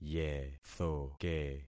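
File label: "yethoke", concatenated syllables; Affixing experiment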